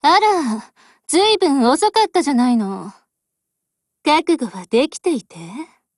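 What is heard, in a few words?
HELLO, Japanese; GF Alarm; PPK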